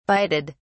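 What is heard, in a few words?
"bided", English, British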